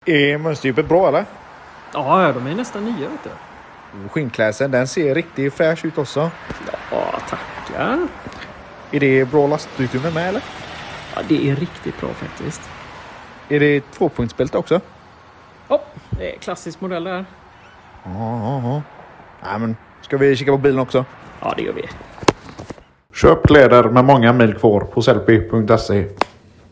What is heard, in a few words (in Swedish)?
radioreklam